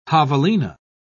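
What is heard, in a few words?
"javelina", British English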